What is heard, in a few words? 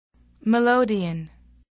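"melodeon", English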